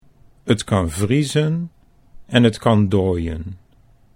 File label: "t kan vriezen", Dutch